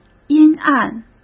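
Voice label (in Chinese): "阴暗", Mandarin Chinese; hsk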